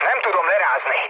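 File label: Hungarian aircraft damaged mood low, speech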